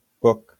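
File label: "book", English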